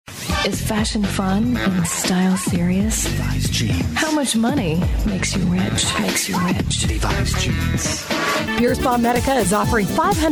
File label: Commercial Female; commercial voiceover